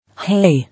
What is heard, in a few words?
"Hay", English